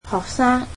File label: "student", Cantonese